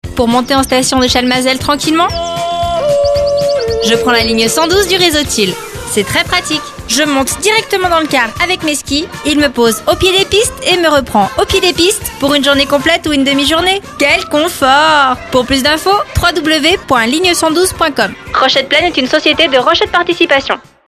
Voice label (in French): radiopub spot